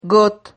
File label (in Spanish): "got", Silabas